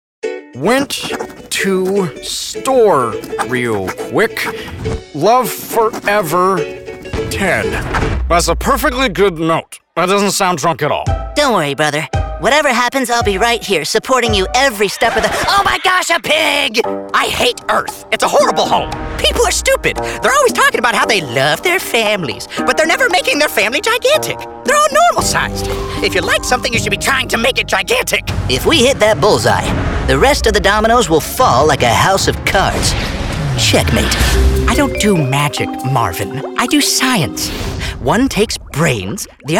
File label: Animation, voiceover